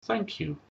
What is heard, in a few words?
"thanks", English